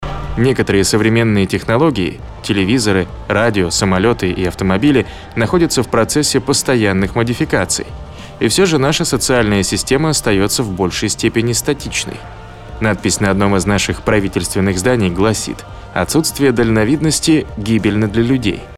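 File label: Russian; Fresco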